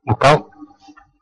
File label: shall i help, west flemish